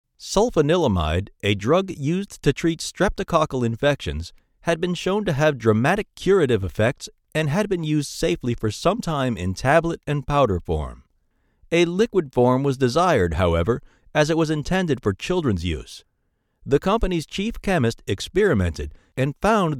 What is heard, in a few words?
documentary; FDA